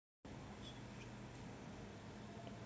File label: voice message